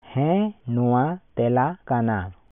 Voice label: Santali